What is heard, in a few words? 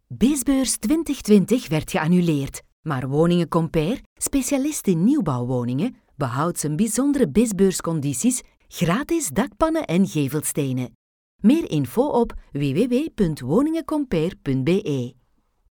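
Flemish, female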